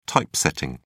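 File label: "typesetting", English, British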